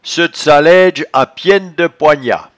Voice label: "ceute", patois jura